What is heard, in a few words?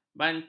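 "bantai", Malay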